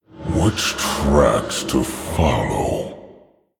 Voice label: Male Orc Thinking; Rexxar Deathknight